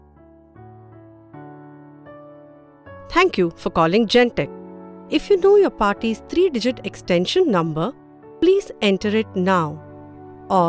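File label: IVR english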